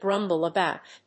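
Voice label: "grumble about", English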